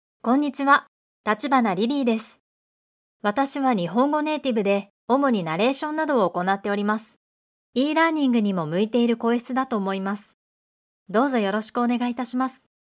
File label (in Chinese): 外籍日语女 活力介绍